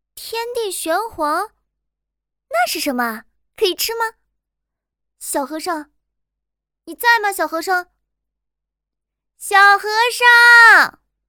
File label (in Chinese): 少萝可爱